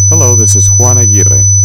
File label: corrupted male voice